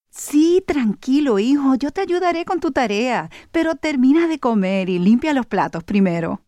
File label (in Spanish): Diálogo madre hijo